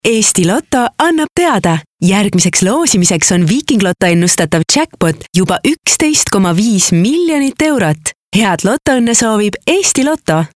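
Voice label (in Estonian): Voice Over women Estonian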